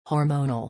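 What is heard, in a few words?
"hormonal", English pronunciation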